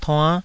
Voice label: "tuann5", taiwanese